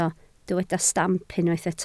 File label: "stamp", Welsh